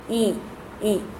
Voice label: "yi" female